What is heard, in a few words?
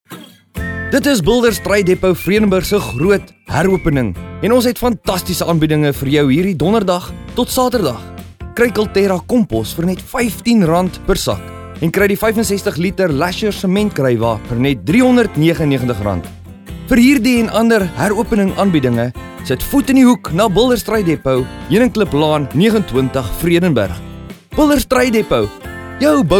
Builders Trade Depo Afrikaans